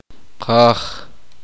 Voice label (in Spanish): padre, uspanteko